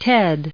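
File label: "Ted", English, us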